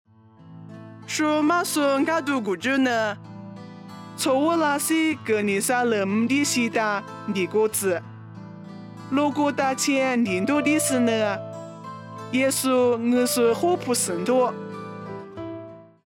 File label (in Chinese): 凉山彝语; 以色列革尼撒勒; nuosu